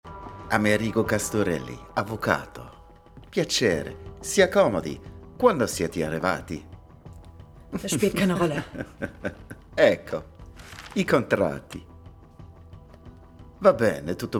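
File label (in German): IT FR (IT Akzent) Synchron; Paco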